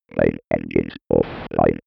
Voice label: computer voice